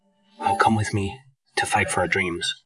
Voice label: Voice Acting, es